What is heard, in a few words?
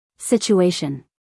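"situation", American English female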